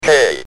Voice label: "K", Speak & Spell UK

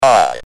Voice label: "I", Speak & Spell UK

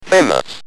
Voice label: "Famous", Speak & Spell UK Speech

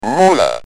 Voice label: "Ruler", Speak & Spell UK Speech

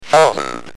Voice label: "Thousand", Speak & Spell UK Speech

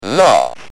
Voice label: Speak & Spell UK Laugh

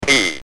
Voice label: "P", Speak & Spell UK

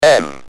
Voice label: "M", Speak & Spell UK Speech

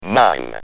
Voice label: "9", Speak & Spell UK

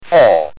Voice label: "4", Speak & Spell UK

